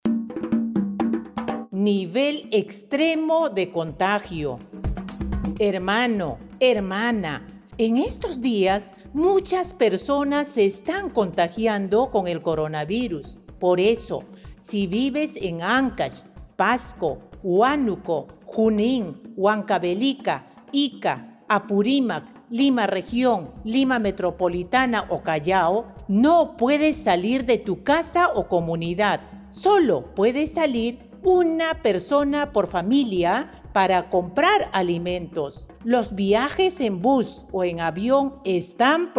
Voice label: castellano pista amazonica, spot de radio; nuevas medidas extremo; precauciones al salir de mi comunidad

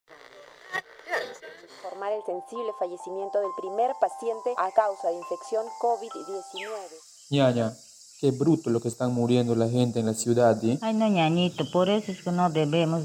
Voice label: castellano, spot de radio; pista amazonica; aqui podemos comer; aidesep

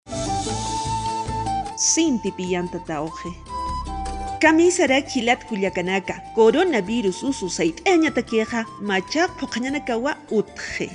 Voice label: aimara, spot de radio; precauciones al salir de mi comunidad; Nuevas medidas restrictivas: Alto

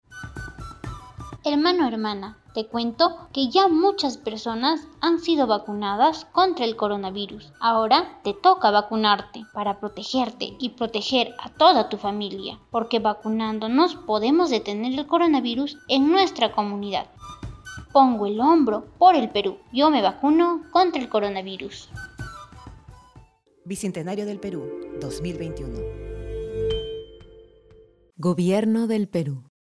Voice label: castellano localidades andinas; vacunate para protegerte del coronavirus; vacuna contra el coronavirus

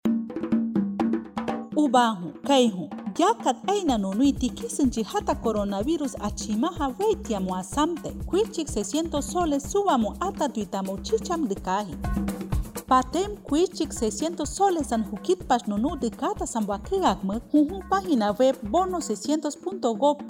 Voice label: awajun, spot de radio; bono 600; precauciones al salir de mi comunidad

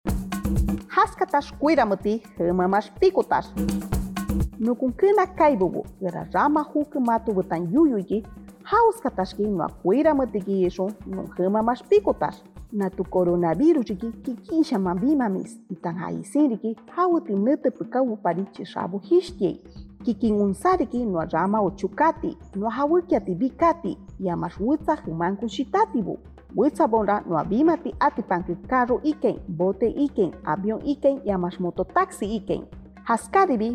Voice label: shipibo konibo, spot de radio; prevencion en traslados fuera de la comunidad; precauciones al salir de mi comunidad

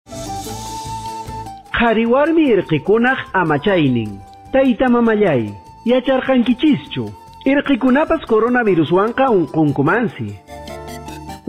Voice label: quechua cusco collao, spot de radio; cuidado de ninas y ninos; sospecha o confirmacion de contagio

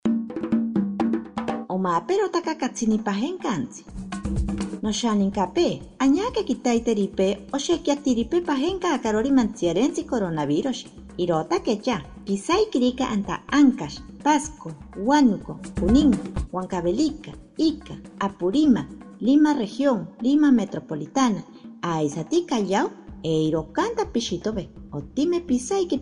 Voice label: ashaninka, spot de radio; nuevas medidas extremo; precauciones al salir de mi comunidad

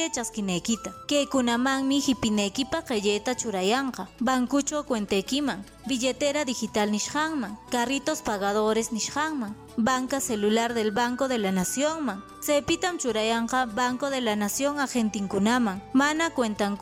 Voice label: quechua ancash bono 600, spot de radio; precauciones al salir de mi comunidad

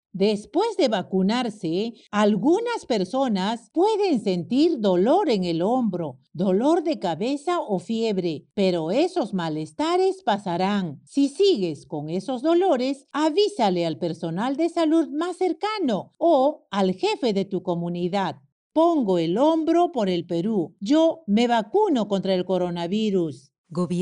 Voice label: castellano llamada, pastillas informativas; vacuna contra el coronavirus

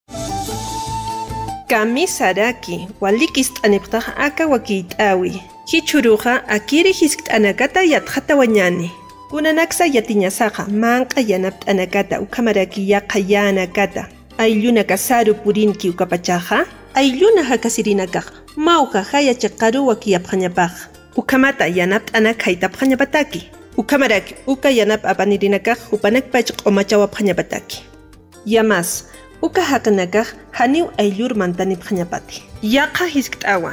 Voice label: aimara 1 voz, spot de radio; entrega de bienes y alimentos; medidas de prevencion

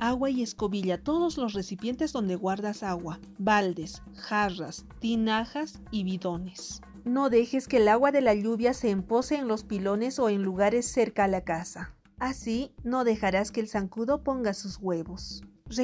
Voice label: castellano pista amazonica que cuidados debo tener dengue, spot de radio; sospecha o confirmacion de contagio

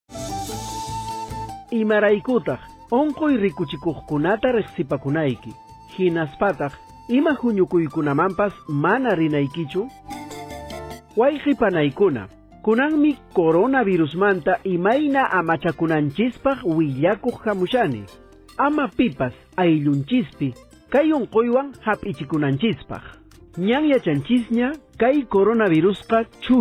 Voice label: quechua cusco collao, spot de radio; atender sintomas y evitar eventos festivos; sospecha o confirmacion de contagio

